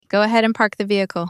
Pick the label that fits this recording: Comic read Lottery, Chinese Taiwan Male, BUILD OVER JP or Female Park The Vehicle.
Female Park The Vehicle